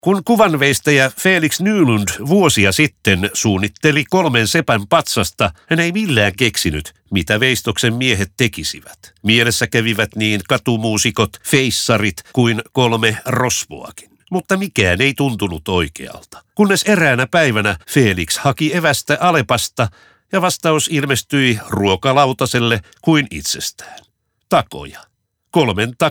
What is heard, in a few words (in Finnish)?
radio; alepa; tacoja